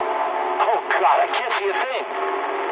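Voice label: English searchlite mood low; speech; War Thunder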